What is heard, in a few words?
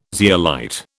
"zeolite", British